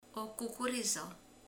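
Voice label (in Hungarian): "kukorica", Hungarian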